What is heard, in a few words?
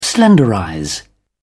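"slenderize", British English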